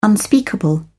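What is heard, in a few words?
"unspeakable", British English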